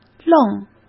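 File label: "愣", Mandarin Chinese; chinese-flashcards; hsk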